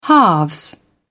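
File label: "halves", British English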